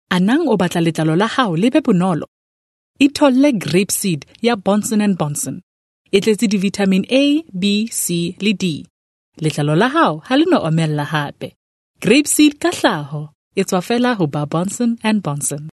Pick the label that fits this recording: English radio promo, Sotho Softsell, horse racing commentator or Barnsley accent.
Sotho Softsell